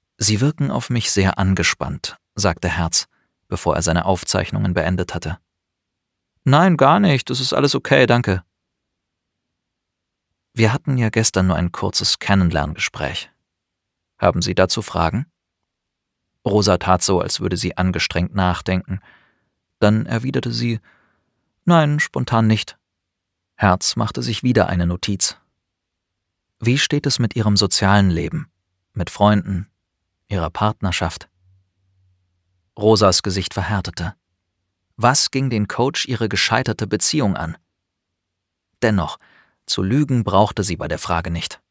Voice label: Hoerbuch; Leichenfaenger

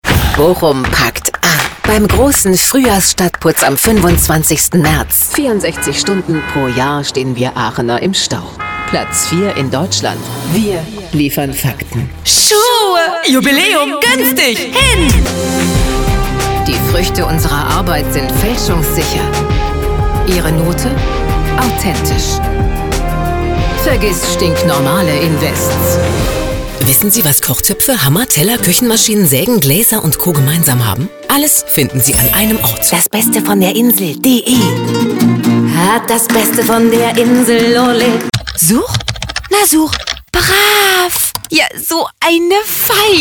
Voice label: Demo Werbung

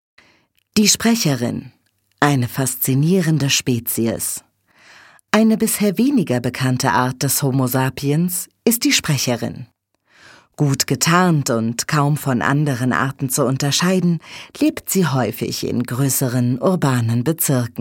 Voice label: Sprecherin Doku

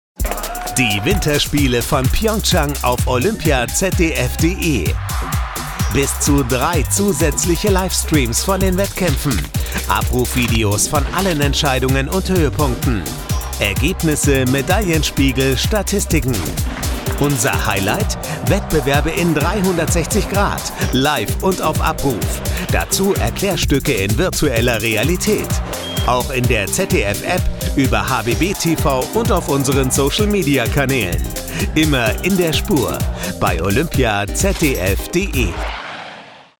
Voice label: DEUTSCH MALE Werbung Off; ZDF Zweites Deutsches Fernsehen Winterolympi

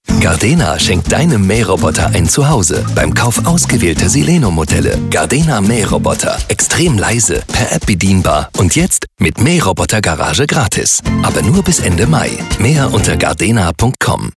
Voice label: Werbung Funk; Gardena Robotics

